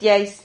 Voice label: "tiais", silabas

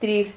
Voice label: "trir", Silabas

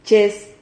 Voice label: "chez", Silabas

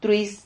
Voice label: "truis", Silabas

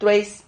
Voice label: "trueis", Silabas

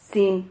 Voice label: "zin", Silabas

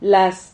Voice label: "las", Silabas

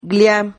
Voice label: "glia", Silabas

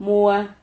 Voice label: "muha", Silabas

